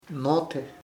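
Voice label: money dinero, Ishir Chamacoco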